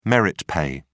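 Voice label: "merit pay", British English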